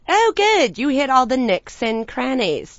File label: hit all nooks crannies, Commentators